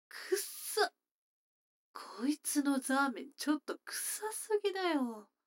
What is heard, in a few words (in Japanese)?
女の子 台詞, ASMR